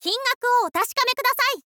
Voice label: "kingaku wo", info girl voice